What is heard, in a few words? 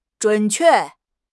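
"zhun3 que4", Mandarin Chinese; HSK4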